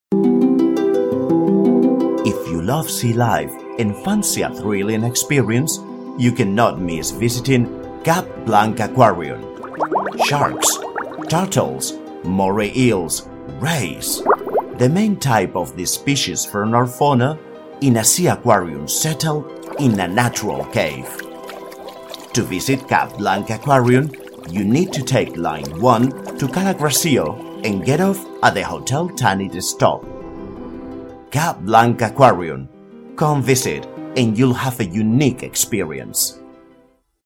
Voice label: Spanish EU